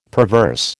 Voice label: "perverse", American